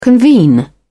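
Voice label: "convene", British English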